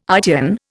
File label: "ITN", Indian